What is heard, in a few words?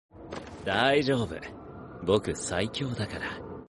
voice japanese; gojo